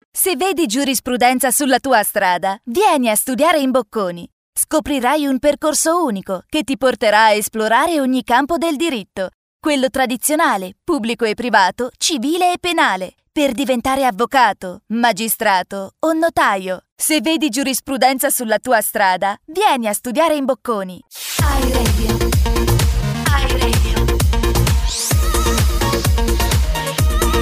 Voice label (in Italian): Spot